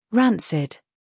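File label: "scandal", American; rancid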